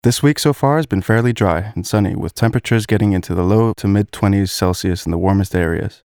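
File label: English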